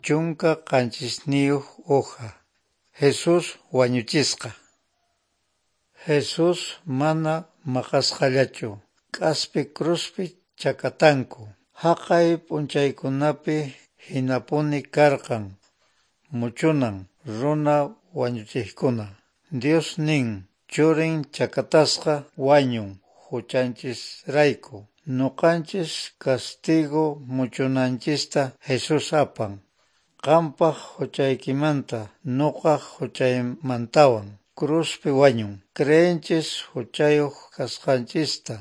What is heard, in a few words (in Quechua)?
good news quechua norte de bolivia apolo